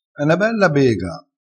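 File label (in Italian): "bega", vocabolario dialettale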